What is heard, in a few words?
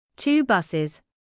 "two buses", English